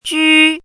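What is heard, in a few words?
"jū", Chinese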